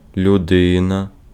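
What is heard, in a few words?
Ukrainian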